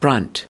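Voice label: "brunt", US English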